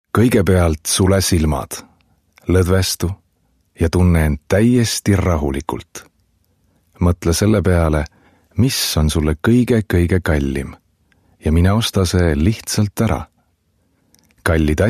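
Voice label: estonian, male, informative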